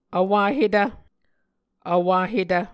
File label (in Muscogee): "vwahetv", Muscogee